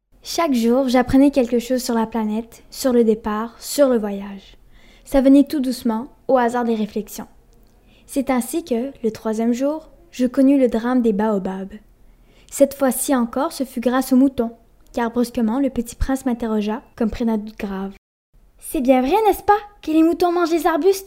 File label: French